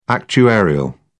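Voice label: "actuarial", English, British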